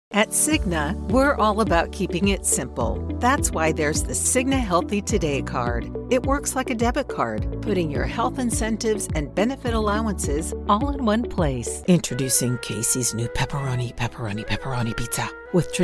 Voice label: Commercial, en-US; Commercial Demo